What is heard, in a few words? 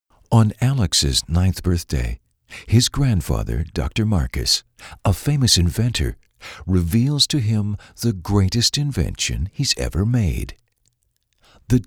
Narration